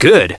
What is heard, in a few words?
"Good." High Male Voice; Knights